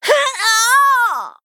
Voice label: Female Human Death

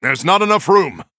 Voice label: Male Human; ERROR FULL MINIONS

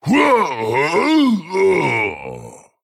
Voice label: Male Tauren Death

